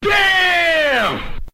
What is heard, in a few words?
"bam", male, Voice